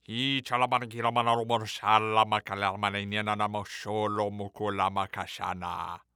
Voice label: Cartoon Human Male Weird Voice Tribal Fast speaking